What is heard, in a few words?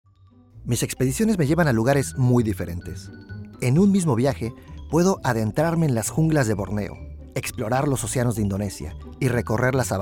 male latam mexican comercial; ideasonora